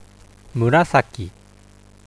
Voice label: "murasaki", Japanese